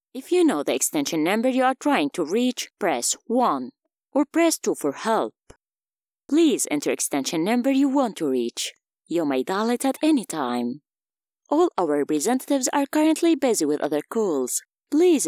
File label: IVR